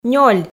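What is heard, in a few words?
"нёль", Komi, female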